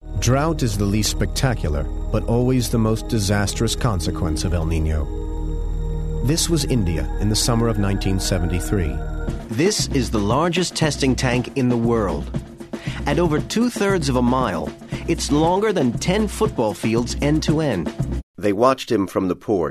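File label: US English, General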